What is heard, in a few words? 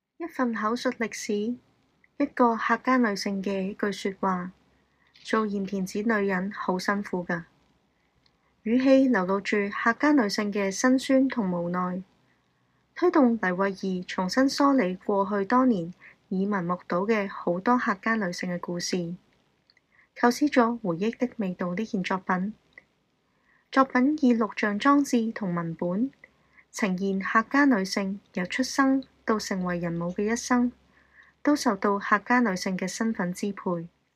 Canto